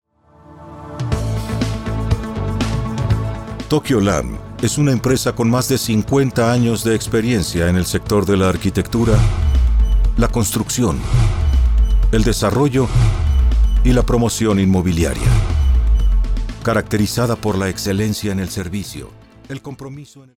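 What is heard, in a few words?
narration corporate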